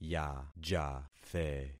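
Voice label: "yajathe", concatenated syllables; Affixing experiment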